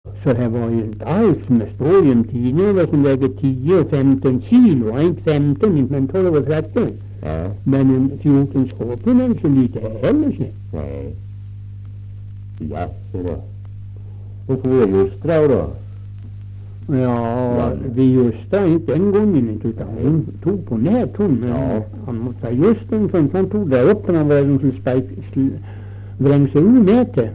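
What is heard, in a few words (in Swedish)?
Österfärnebo Gästrikland, dialekter; Folkminnen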